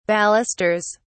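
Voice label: "balusters", British English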